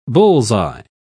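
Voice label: "bull's-eye", British English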